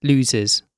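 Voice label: "loses", British English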